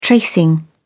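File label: "tracing", British English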